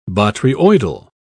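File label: "botryoidal", British English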